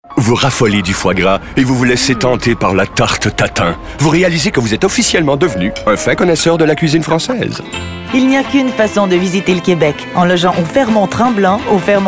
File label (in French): voix femmes; fairmont hotel